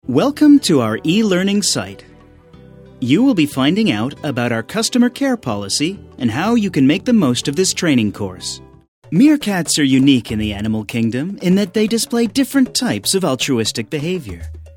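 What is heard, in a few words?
American, narration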